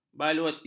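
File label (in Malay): "baluarti", Malay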